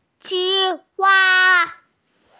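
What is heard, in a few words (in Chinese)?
"qing1wa1", Chinese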